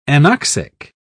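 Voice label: "anoxic", British English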